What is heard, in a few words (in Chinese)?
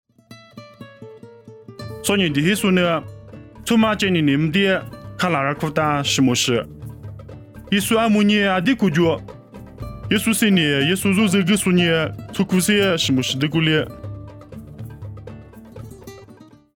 凉山彝语; 以色列迦拿; nuosu